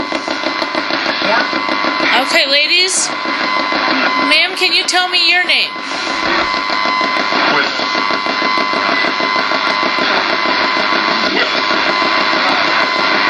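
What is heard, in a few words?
radio voices, recorded "live"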